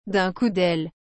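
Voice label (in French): "d’un coup d’aile", French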